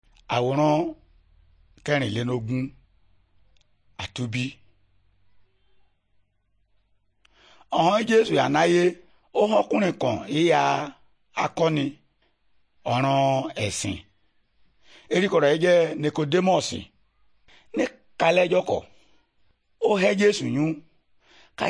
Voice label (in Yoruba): good news yoruba, bible